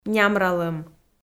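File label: "нямралӧм", Komi, female; kpv audio dict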